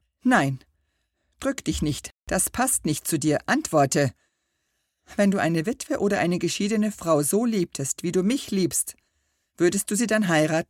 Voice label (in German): Hoerbuch; Die Aussteigerin